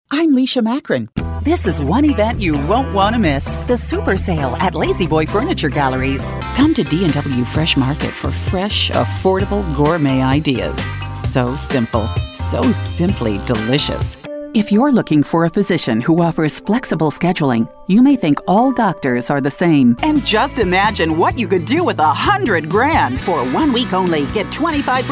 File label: Radio TV Commercials